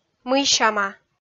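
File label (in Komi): "мыйсяма", Komi, female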